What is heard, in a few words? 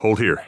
radio bot hold, heavy; vo; Counter Strike Model Pack; CS:GO; phoenix coop